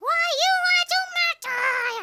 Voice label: angry, speech Classic

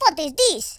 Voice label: what the, Russki

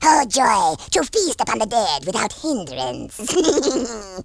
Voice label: perfect, speech, Horror

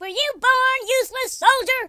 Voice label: "uh oh", Drill Sergeant; speech

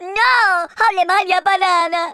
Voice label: missed, speech Italian

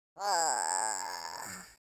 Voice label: sad, Russki

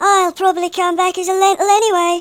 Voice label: kamikaze, speech, Hippy

